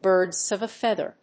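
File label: "birds of a feather", English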